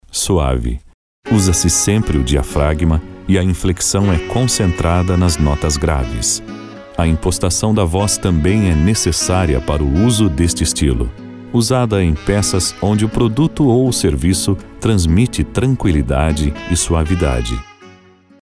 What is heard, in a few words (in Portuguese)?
Locução Estilo Suave com trilha